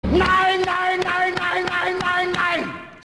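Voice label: "nein", German; taunts props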